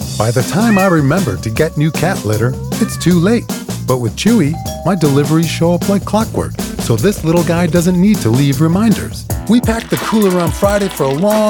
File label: Commercial; Voice Over Artist